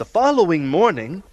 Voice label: following morning announcer

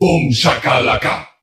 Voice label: "boom shakalaka", Voice

